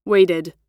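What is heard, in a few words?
"waited", American English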